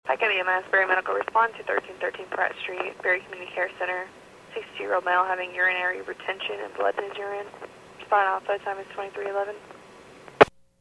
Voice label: PAGING